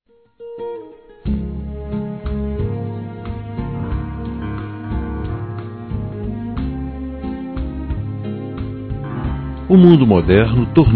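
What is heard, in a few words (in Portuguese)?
Namoro Masculino; Aniversários; ANIVERSARIO romantico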